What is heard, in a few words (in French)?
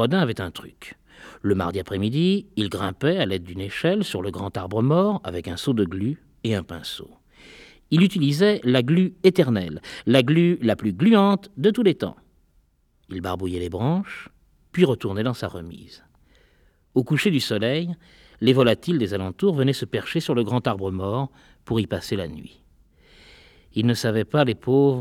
La tarte aux oiseaux, livres audio; Dahl, Roald; Deux gredins